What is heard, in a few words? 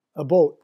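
"a boat", English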